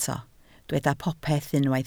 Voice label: "popeth", Welsh